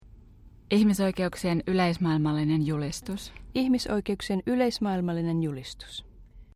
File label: Finnish title duo